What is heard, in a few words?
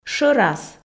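"шӧрас", Komi, female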